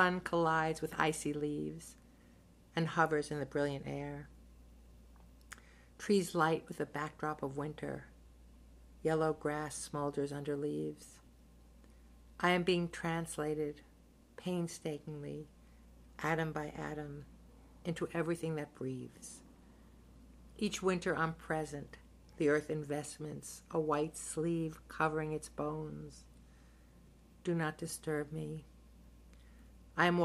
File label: reads prayer; from in cannon cave